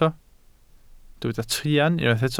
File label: "truan", Welsh